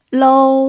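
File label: "lou", Mandarin Chinese pinyin, tone 1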